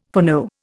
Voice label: "foreknow", Indian

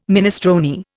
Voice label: "minestrone", Indian

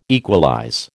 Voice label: "equalize", American

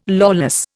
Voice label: "lawless", Indian

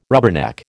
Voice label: "rubberneck", American

